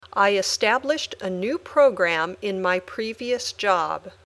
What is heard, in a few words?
Dictation